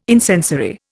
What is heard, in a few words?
"incensory", Indian